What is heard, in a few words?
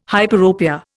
"hyperopia", Indian